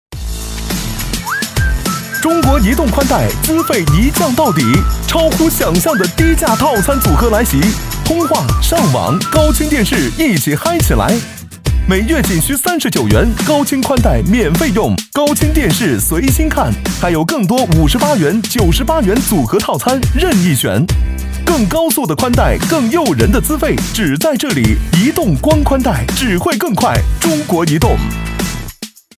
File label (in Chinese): 促销年轻; 中国移动